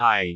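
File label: "aai4", Cantonese; google B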